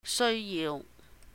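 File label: "sèui yiu", Cantonese; need, require; 需要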